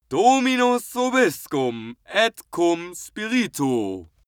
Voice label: male pray latin